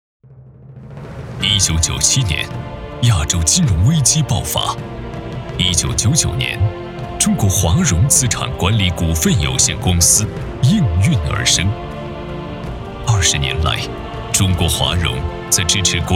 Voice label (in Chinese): 男 广告类; 中国华融北京分公司